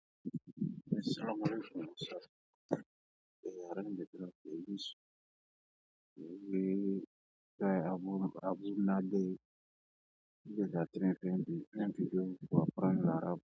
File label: voice message